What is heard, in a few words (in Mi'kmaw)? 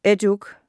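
"etuk", Mi'kmaq; wowkwis aqq kaqaquj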